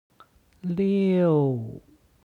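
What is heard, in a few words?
"liu", Chinese; 六; HSK Level One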